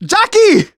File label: jockey, survivor voice; Left 4 Dead 2